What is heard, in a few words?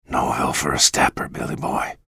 chatter negative; bj blazkowicz; respawn